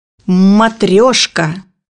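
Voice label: "matryoshka", Russian; bukvar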